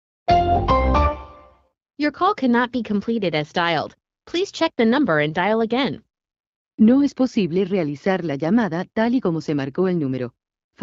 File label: message hd voice quality, cell phone; att